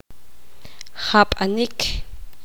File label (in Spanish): poqomam morder